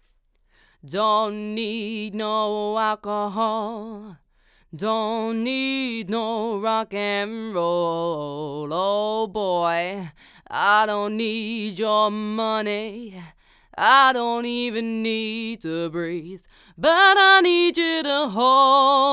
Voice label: Vox AKG C414 BXLS Card; Vox Shoot

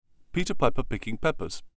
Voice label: Plosives Omni

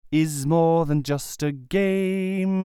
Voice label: Sibilance Reposition

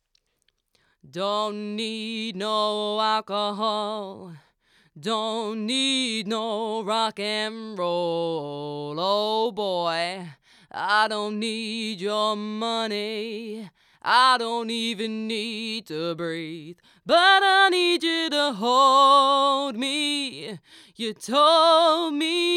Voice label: Vox Shure SM58 Card; Vox Shoot

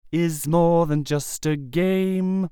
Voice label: Sibilance Nothing

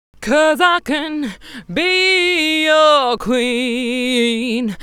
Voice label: Vocal Editing Silence Edit Fade

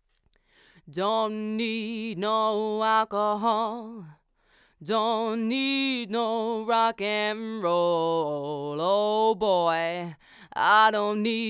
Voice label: Vox Shure KSM137 Card; Vox Shoot

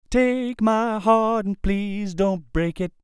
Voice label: Pops Popshield

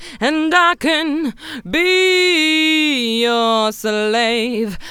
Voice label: Vocal Editing Noise Edit Orig

